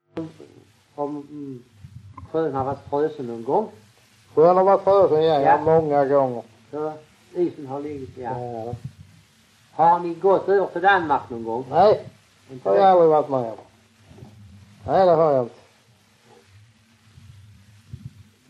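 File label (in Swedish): Raus Skåne, Svenska sagor dialekter; Folkminnen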